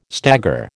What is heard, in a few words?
"stagger", American